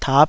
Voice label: "tap5", Taiwanese